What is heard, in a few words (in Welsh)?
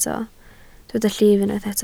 "llif", Welsh